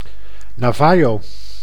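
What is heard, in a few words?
"Navajo", Dutch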